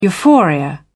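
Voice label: "euphoria", British English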